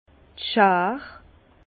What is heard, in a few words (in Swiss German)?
"schar", Bas Rhin; vin; ciseaux